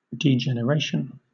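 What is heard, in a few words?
"degeneration", British English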